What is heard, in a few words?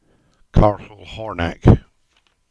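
"Castle Horneck", English; place-names; Castle Hornek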